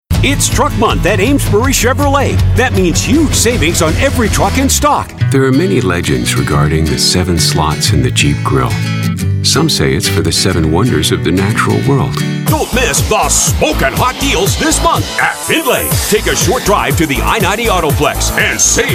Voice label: automotive voiceover